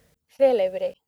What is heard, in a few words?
"celebre", accent; laboratori fonetica; practiques accent